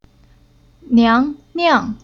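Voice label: "Niang", Chinese syllable; Уроки фонетического блока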